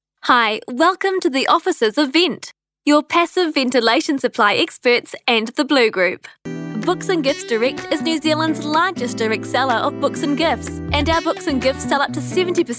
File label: NZ Voice Talent Phone